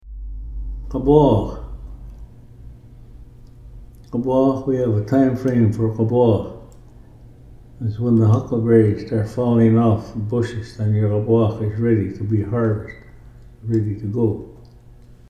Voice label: "gaboox", Ts’msyen; pineapple coconut cockle curry